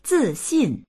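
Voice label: "zi4xin4", Mandarin Chinese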